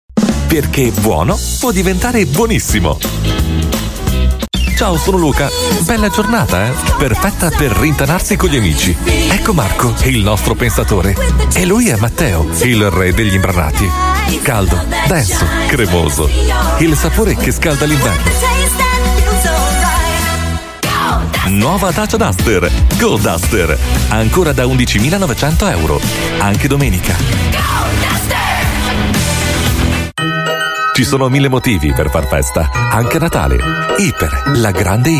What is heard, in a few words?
Italian Commercials